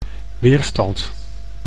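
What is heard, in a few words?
"weerstand", Dutch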